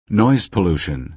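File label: "noise pollution", American English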